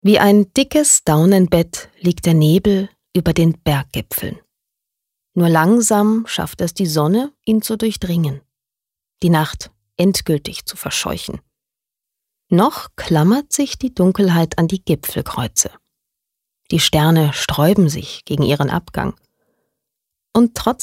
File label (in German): Schauspiel Sanft